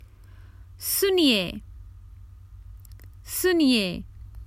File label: Common Hindi Phrases for travel Excuse me to get attention